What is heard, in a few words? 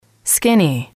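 "skinny", English, female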